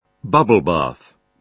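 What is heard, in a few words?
"bubble bath", British English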